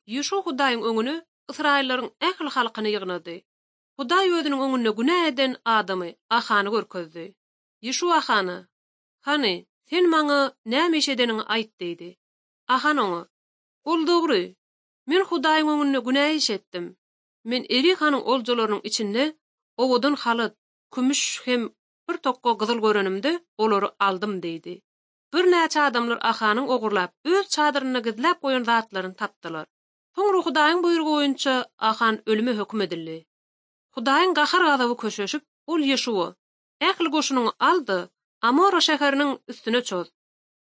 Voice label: turkmen, ot drama